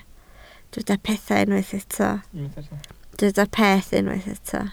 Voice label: "peth", Welsh

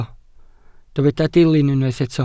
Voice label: "dilyn" Welsh

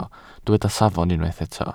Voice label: "safon", Welsh

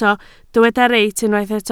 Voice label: "reit", Welsh

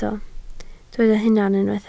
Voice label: "hunan", Welsh

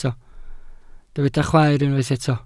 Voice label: "chwaer", Welsh

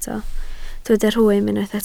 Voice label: "rhwym" Welsh

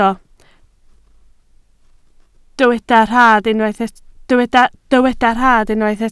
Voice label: "rhad", Welsh

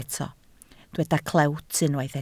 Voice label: "clewt", Welsh

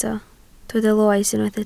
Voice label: "loes", Welsh

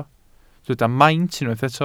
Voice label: "maint" Welsh

